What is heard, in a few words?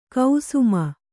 "ಕುಸುಮೆ", Kannada